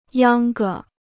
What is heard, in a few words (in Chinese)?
"秧歌", Chinese